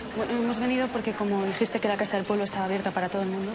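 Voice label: VoiceActing Spanish, female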